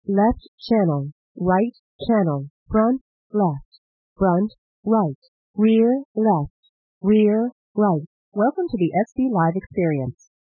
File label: female plane noise